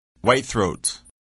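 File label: "whitethroat", English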